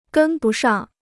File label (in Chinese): "跟不上", Chinese